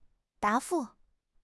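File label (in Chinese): "答复", Chinese; HSK6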